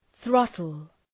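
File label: "throttle", English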